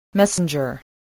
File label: "messenger", voice, spelling